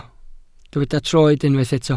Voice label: "troed", Welsh

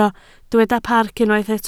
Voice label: "parc", Welsh

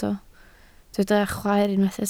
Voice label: "chwaer", Welsh

